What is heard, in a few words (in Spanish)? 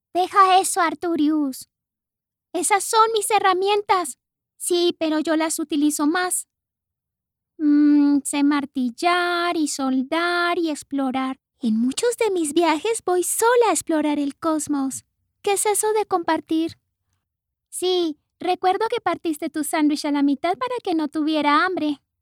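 PERSONAJE LUNA NIÑA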